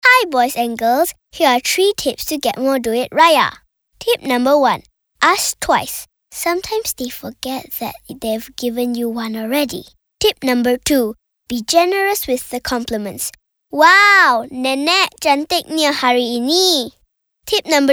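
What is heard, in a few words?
English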